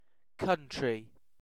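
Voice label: "country", British English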